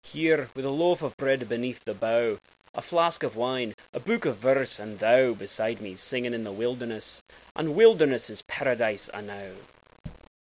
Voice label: loaf, scots